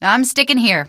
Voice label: I'm sticking here, female; Source Engine; video game; npc